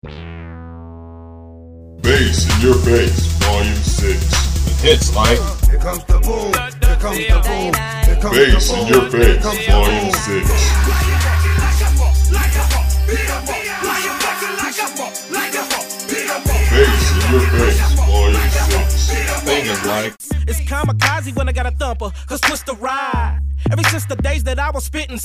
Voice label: spot